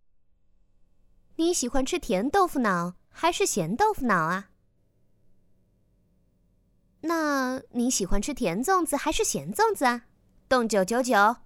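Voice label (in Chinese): 少御音女试音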